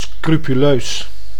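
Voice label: "scrupuleus", Dutch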